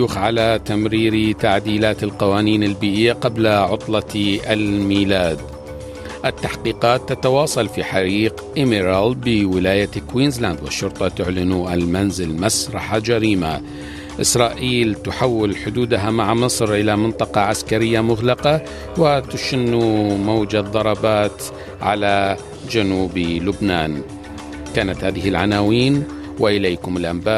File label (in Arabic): نشرة أخبار الصباح; arabic